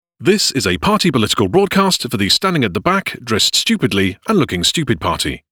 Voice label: Party Political Broadcast

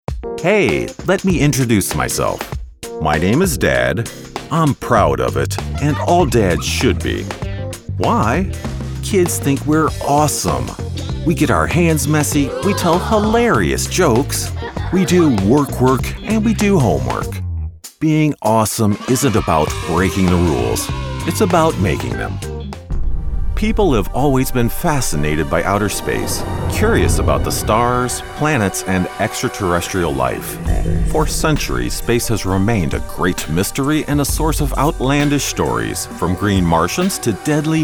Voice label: Narration Mix

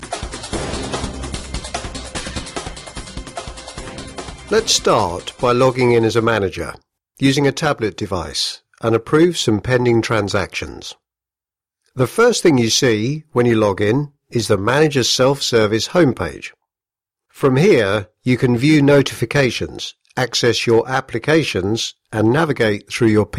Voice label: Mixed Straight Read; Oracle

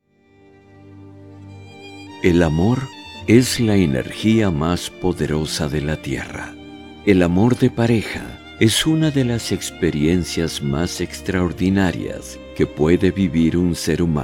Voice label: Spa Eng; Love is Forever

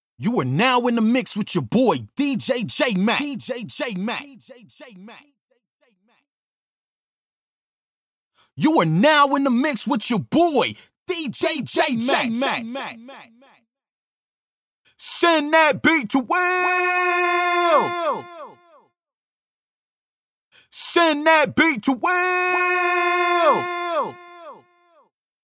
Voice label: dj drop

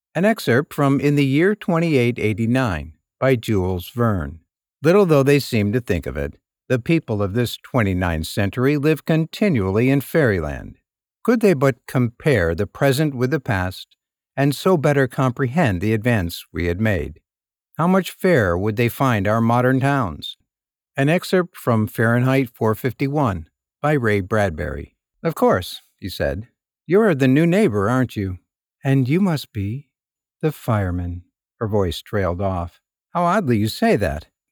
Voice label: Audiobook

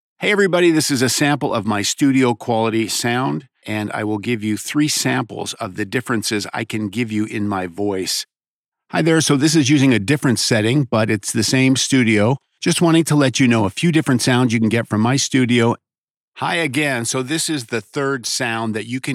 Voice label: Studio Quality Sound

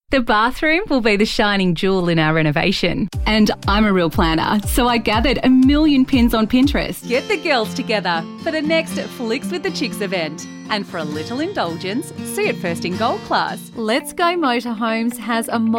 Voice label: VO Demo

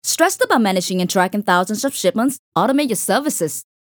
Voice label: Upbeat Energetic

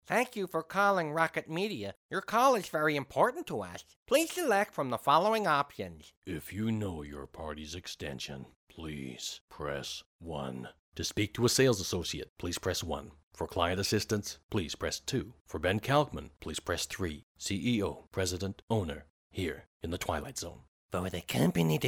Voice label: IVR Mixed Voice

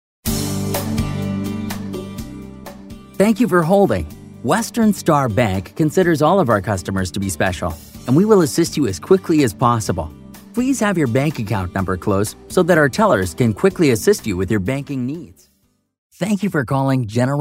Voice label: Telephony

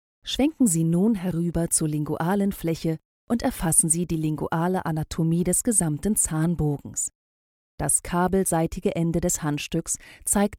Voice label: e learning - med German

